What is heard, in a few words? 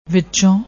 "wichoo", Punjabi; ਵਿੱਚੋਂ